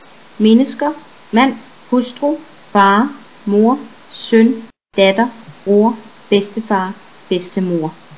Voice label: danish; people

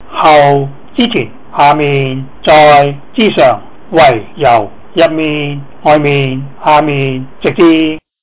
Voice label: cantonese words

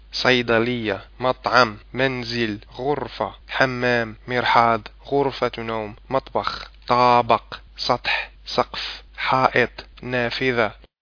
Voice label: arabic places

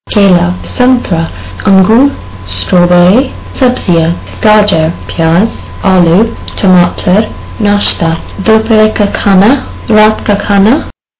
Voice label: hindi; food

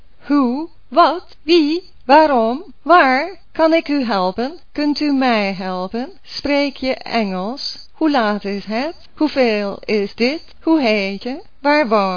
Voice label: dutch phrases

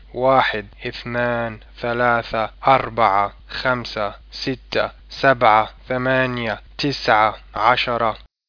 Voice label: arabic; numbers

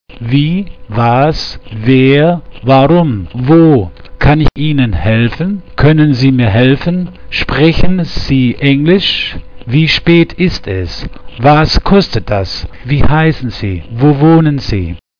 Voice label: german; questions

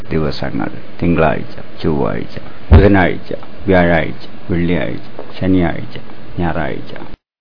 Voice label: malayalam days

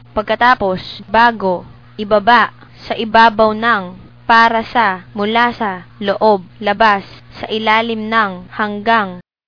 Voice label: filipino; words

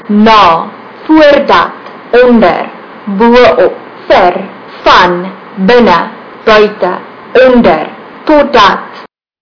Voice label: afrikaans; words

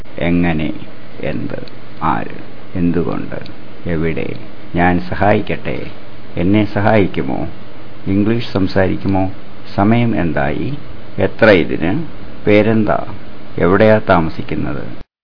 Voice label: Malayalam phrases

